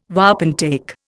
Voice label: "wapentake", Indian